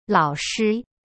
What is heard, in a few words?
"老师" lao shi, chinese